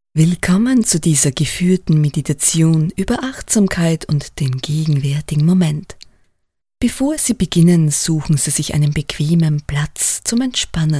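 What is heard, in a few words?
Hot Calm German Female Voiceover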